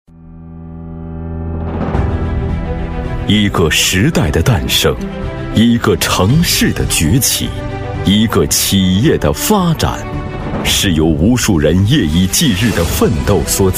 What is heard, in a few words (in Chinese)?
男 宣传片 浑厚声音; 北京银行